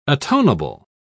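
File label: "atonable", English, uk British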